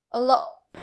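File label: Voice Acting Spanish Female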